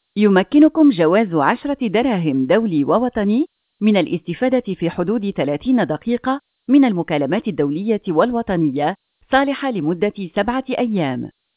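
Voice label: IVR Message AR; audios-ivr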